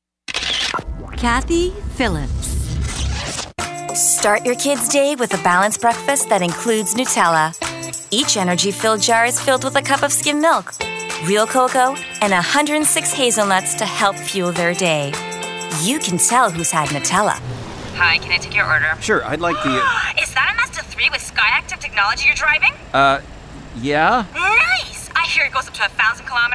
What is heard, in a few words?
radio commercials